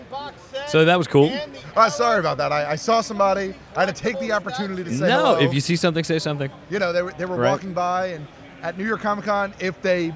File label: Male Radio; NYCC 2013